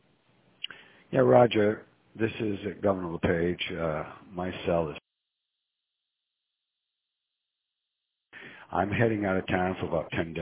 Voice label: voicemail